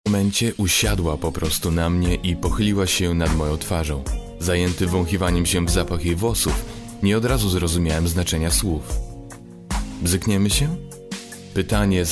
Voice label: male polish voice over talent narrative

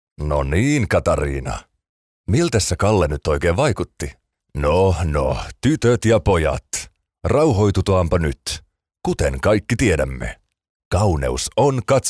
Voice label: male finnish voice over talent character

